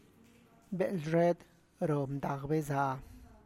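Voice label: silkworms eat blackberry leaves, Tlacochahuaya Zapotec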